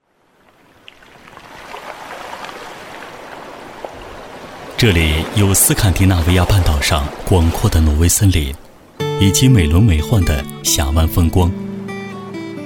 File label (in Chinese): 男 风光专题 风光 配乐